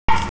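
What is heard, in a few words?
"gei3", Mandarin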